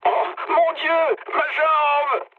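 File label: damaged pilot, mood low, French; War Thunder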